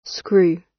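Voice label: "screw", British; Time, history; Thời gian, lịch sử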